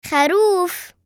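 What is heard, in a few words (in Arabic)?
"خروف", Arabic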